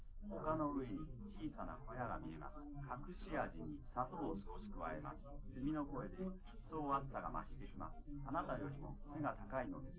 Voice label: male, live rec, 250ms, 5cm